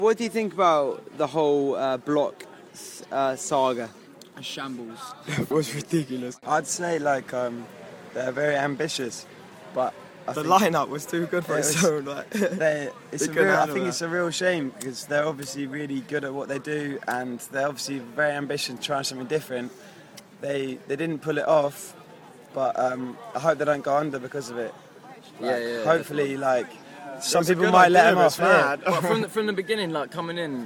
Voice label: vox pops